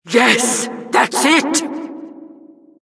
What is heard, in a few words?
announcer, vo, killstreak